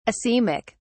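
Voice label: "asemic", British English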